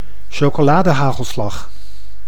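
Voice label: "chocoladehagelslag", Dutch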